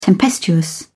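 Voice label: "tempestuous", British English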